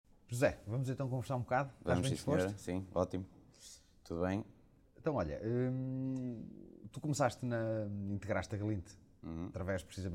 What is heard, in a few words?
Podcast; Glintt